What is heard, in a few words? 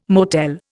"motel", Indian